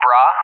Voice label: "BRA", ENG, AWACS; DCS

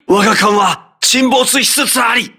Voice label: hull breach, voice message, crew ships japanese

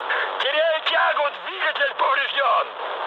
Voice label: damaged engine, mood high, speech ru; War Thunder

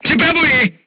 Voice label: voice message reloading, crew tanks czech

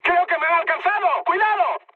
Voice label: damaged aa, mood high, speech; War Thunder

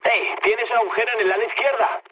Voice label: left wing, mood low, speech; War Thunder

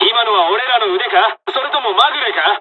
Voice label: dialog, dialogs japanese; us midway; War Thunder

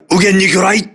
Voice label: voice message torpedo right, crew ships japanese

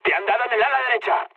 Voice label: right wing, mood high, speech; War Thunder

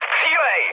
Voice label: attack position, speech cze, mood med; War Thunder

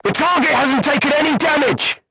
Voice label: voice message armor not pierce, vietnamese

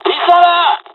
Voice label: dialog, dialogs french; War Thunder; midway

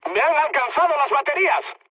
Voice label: damaged aa, mood med, speech; War Thunder

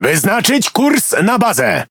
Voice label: Speech Quick Commands Enemy Base, PL; World Of Warships

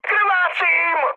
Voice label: damaged pilot, speech cze, mood high; War Thunder

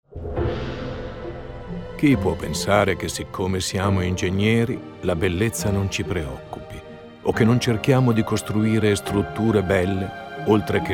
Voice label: Italian; Civ5; Wonder; Eiffel Tower

